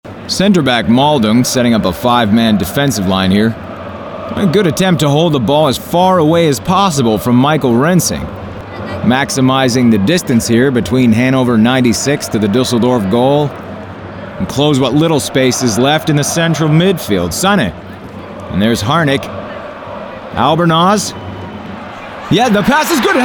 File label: SPORT FOOTBALL COMMENTATOR; HANOVER